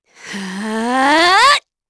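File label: Vox Casting, korean; Glenwys; kingsraid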